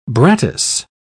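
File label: "brattice", British English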